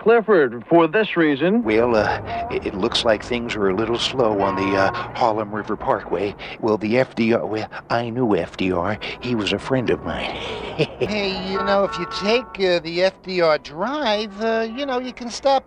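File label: guest reporter traffic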